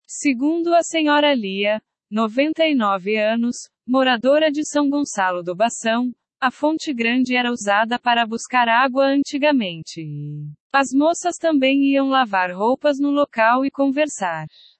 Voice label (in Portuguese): fonte grande narrativa